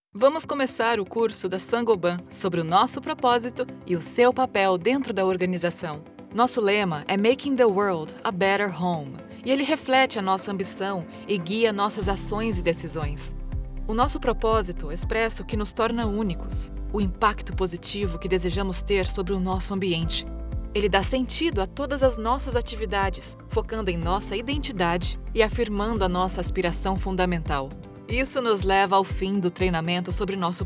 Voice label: Educational Training eLearning Charismatic Welcoming Professional; Saint Gobain